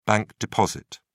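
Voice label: "bank deposit", British English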